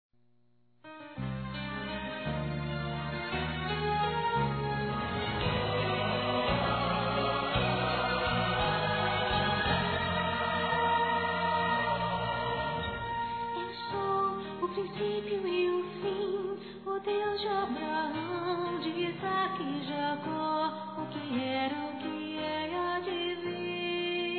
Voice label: PARA PADRINHO F, Telemensagens, Dia dos Pais, fem; Telemensagens 2017

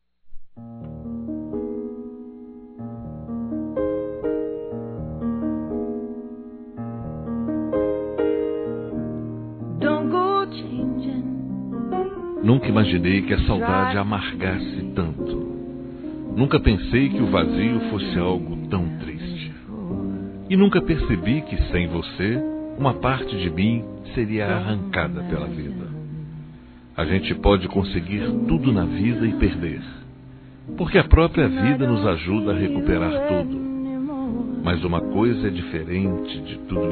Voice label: RECONCILIAÇÃO M; Telemensagens

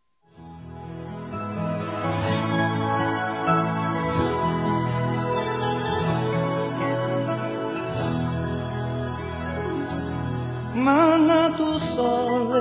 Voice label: VOVÓ F, Telemensagens, Aniversários, Fem; Aniv Familiar

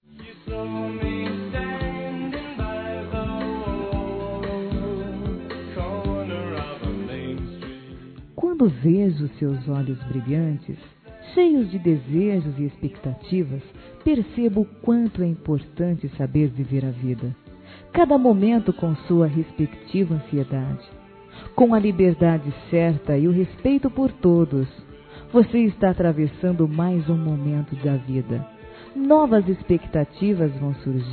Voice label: Neto Criança Feminino, Aniversário Infantil; Telemensagens

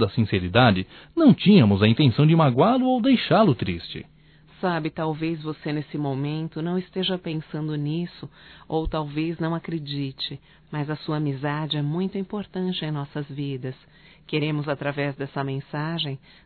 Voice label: Desculpas amigo duas vozes, Só Voz; Telemensagens; AMIZADE; masc

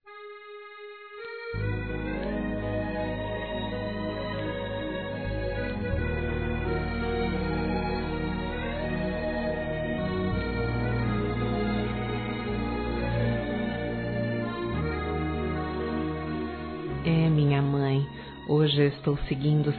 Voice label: Saudades Mãe Feminino; Telemensagens

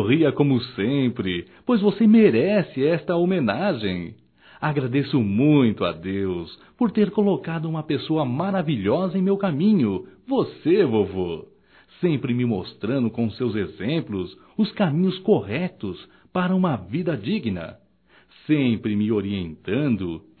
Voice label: Dia dos Pais Avô Masculino

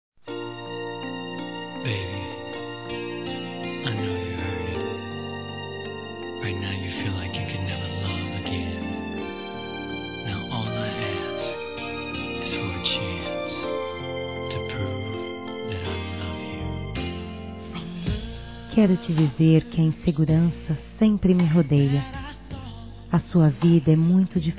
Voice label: ESTOU MAGOADA C VOCÊ, fem

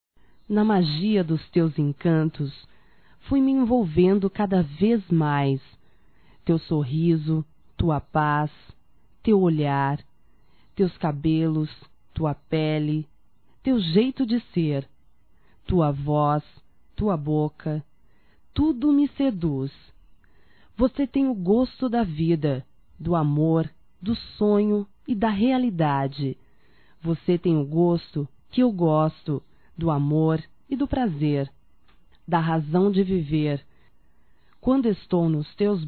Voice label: SENSUAL (F); Românticas

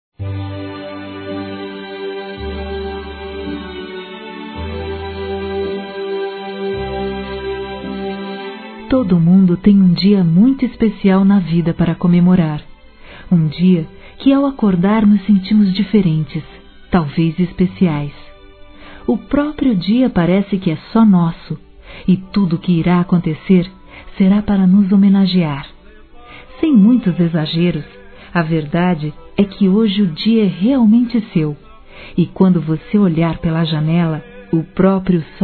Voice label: ANIV. NEUTRO F

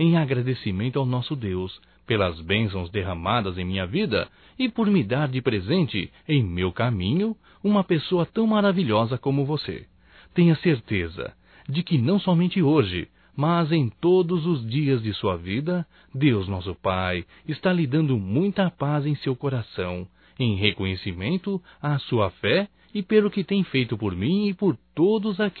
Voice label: NATAL ANO NOVO SOGRO, masc; Telemensagens 2017

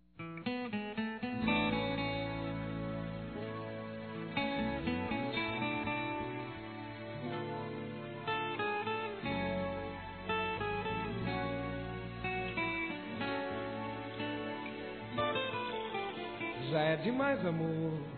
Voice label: CIUME EXAGERADO, masc; Ciúmes

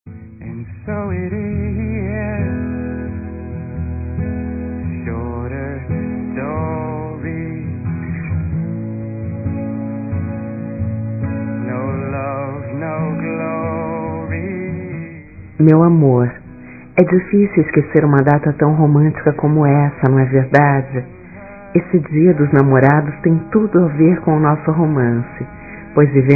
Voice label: ESPOSO F; Dia Dos namorados; fem; Telemensagens 2017

